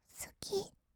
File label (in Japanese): "すき", kawaii voice; ASMR; 色々なワンショット